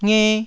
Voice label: "nge1", taiwanese syllables

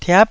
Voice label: "tiap9", taiwanese Syllables

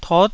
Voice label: "tot9", taiwanese Syllables

